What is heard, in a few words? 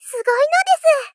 Moe Praise Sugoi nodesu, moe loli girl